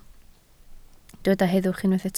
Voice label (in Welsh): "heddwch", Welsh